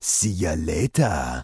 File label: Troll Male Shaman NPC Farewell